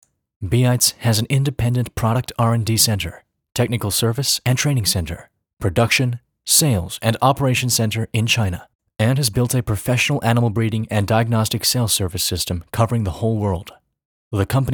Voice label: 配音词-英文; 倍特双

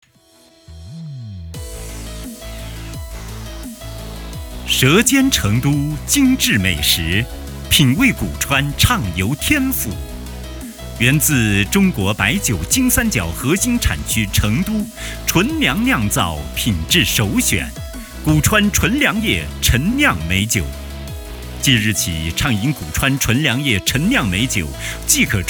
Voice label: 产品广告配音

